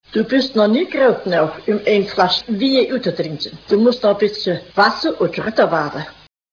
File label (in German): ostpomm, Dialekte